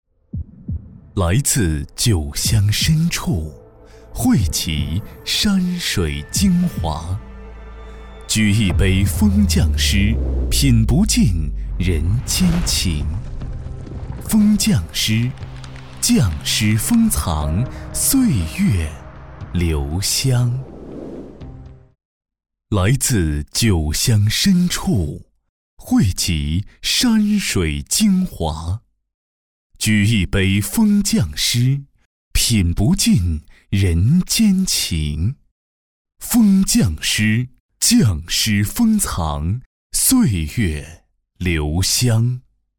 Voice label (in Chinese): 男, 广告; 封酱师